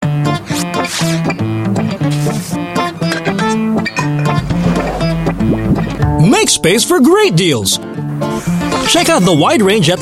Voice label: Asian English TVC Philippines; Lazada

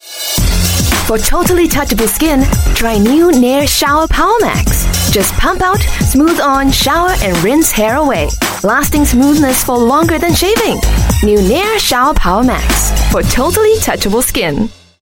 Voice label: Asian English shower power max; Nair

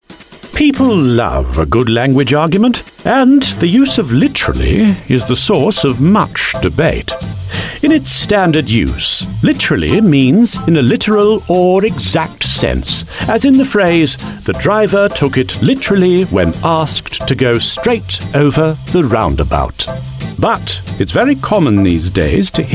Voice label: UK English Oxford accented; STEPHEN-FRY

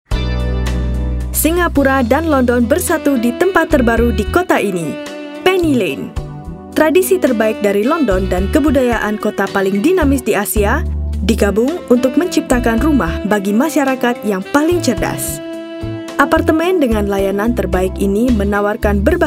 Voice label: Bahasa Indonesia Property

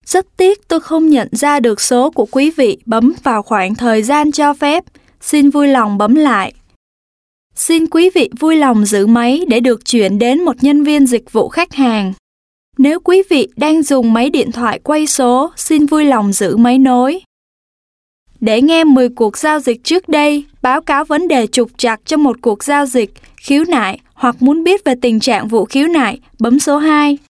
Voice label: Vietnamese, Female

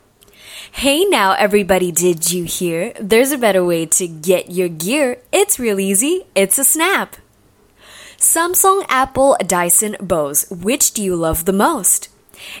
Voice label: Asian English snappy deals

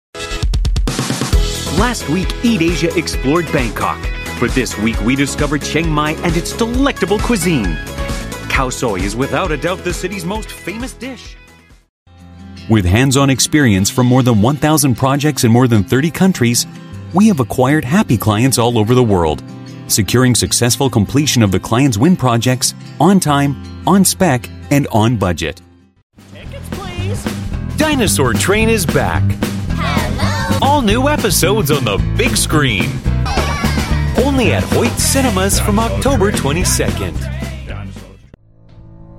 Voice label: US English

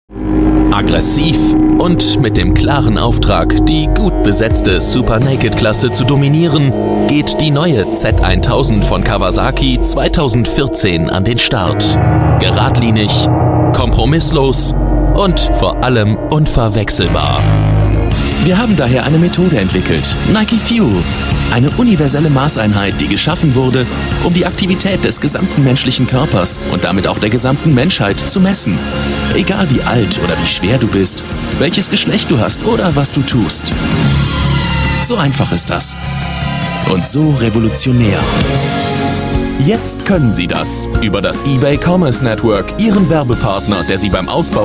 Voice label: German voice reel

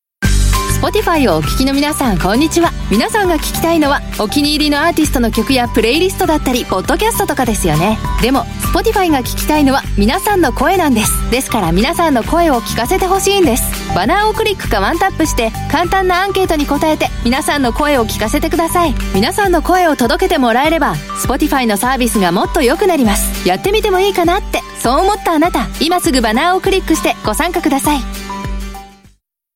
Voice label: Japanese Female; Spotify US

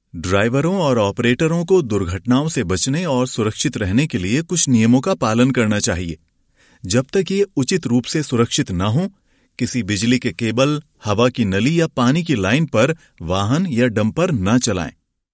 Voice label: Hindi E-learning

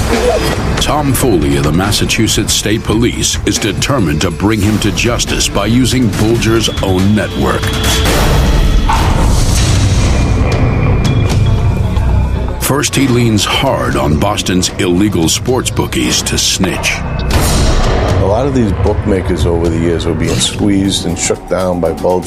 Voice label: US English Crime Narration

